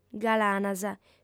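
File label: "galanas", Sardinian, female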